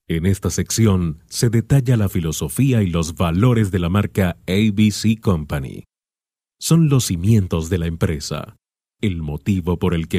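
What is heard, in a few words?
Spanish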